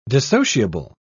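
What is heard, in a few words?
"dissociable", British English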